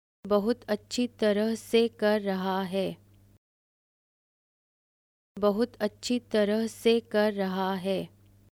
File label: (Name) is doing well, Hindi male